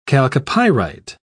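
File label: "chalcopyrite", British English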